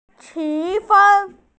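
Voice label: "qu1fen1", Mandarin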